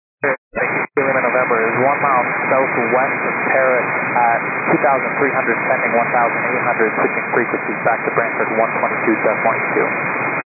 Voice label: 122.925 MHz; scanner radio